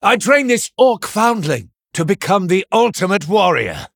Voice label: Male Human; Blackmoore; Thrall

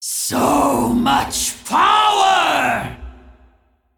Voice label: Female Dragon Undead Onyxia Boss Uses Hero Power

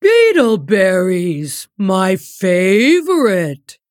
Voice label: Female Tortollan Play

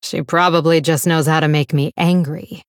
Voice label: Female Blood Elf; Valeera

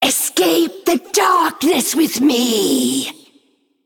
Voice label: Female Naga Play

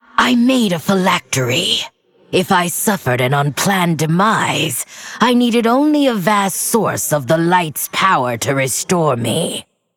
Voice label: Female Undead In Game Turn

